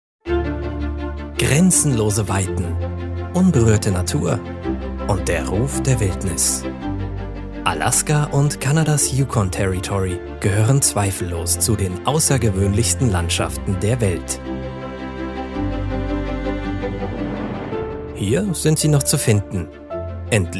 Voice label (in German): Sachtext Doku; Alaska